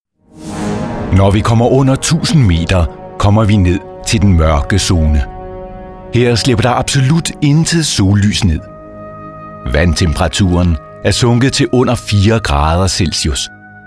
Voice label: Doku, deutsch maenner; sprecher

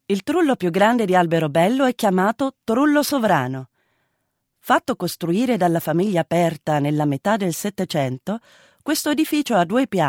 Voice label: it dokumentation, italienisch; sprecher

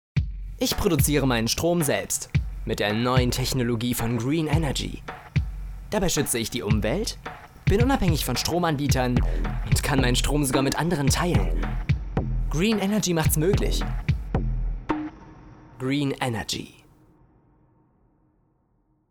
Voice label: Werbung

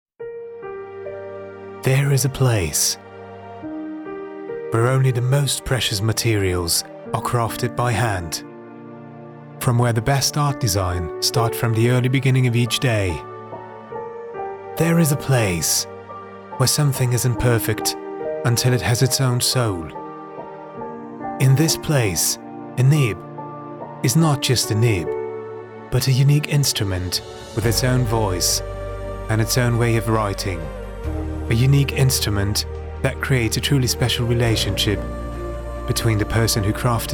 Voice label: US Werbung, englisch; sprecher

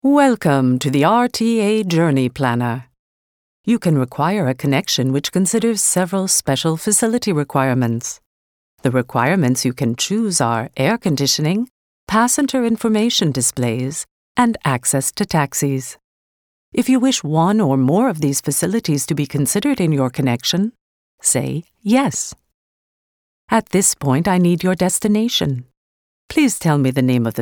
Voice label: us telefon, sprecher englisch

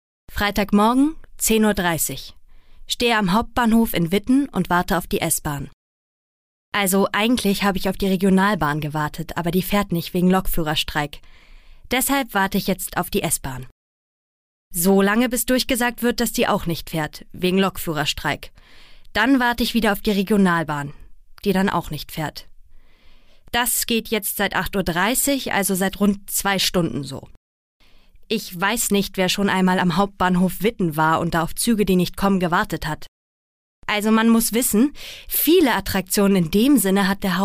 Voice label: de hoerbuch, deutsch frauen; sprecher

